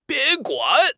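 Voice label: "bin1 guan3", Mandarin Chinese; HSK2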